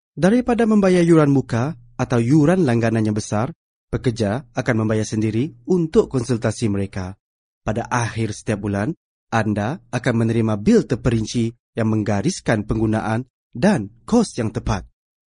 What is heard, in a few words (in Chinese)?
马来语男声